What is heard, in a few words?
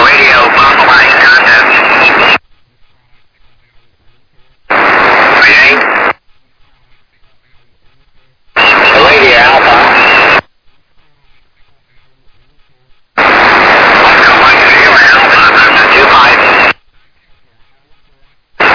SSB USB 21MHz; CQWWSSB; CQWWDX-SSB-2023